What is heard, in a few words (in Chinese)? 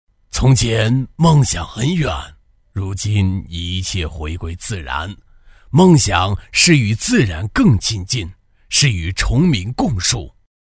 男 国语角色 60岁爷爷; 大气厚重; 素人自然